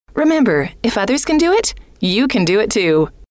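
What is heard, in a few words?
remember if others can do it you, female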